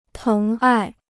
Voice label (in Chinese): "疼爱", Chinese